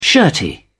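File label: "shirty", British English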